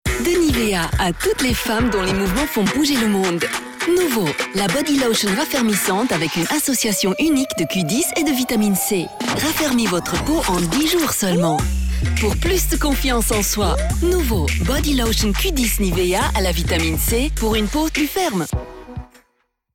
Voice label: Commercial FR; NIVEA